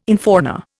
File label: "infauna", Indian